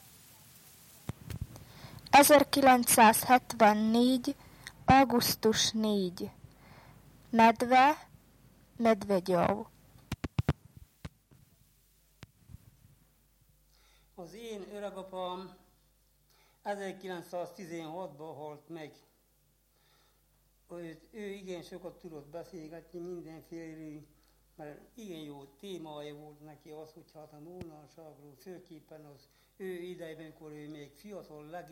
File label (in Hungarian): nyelvjaras gyujtesek